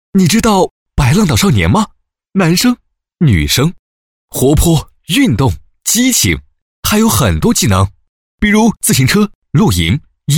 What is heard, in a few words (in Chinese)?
男; 飞碟说; 白浪岛